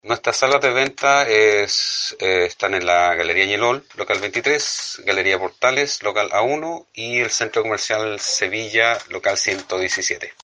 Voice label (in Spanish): Cuña